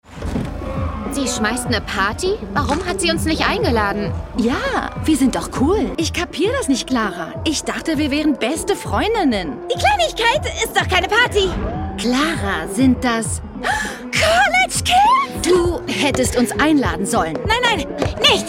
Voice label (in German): Teenager Horror-Oma; Teeny